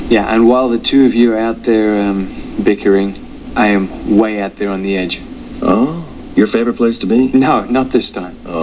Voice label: Bickering